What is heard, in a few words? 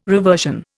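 "reversion", Indian English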